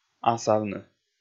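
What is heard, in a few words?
"асавны", Komi, male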